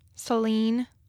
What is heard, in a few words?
"Saline", Michigan